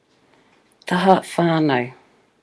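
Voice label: "taha whanau", Māori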